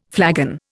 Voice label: "flagon", Indian